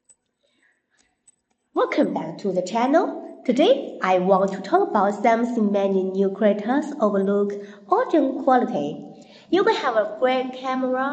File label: indoor podcast cleaned